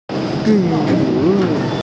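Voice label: "zhi4yu4", Mandarin Chinese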